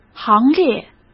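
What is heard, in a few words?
"行列", Mandarin Chinese; chinese flashcards; hsk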